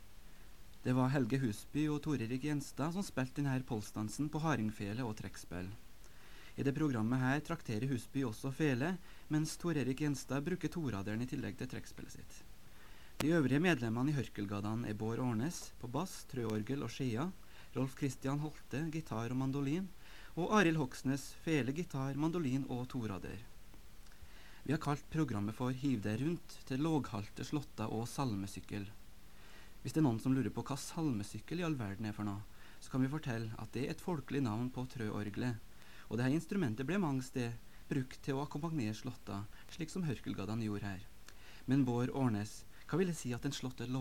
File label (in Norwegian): Tale